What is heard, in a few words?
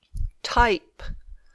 "type", English